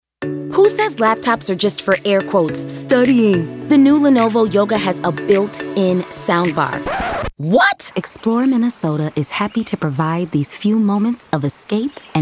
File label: Female, commercial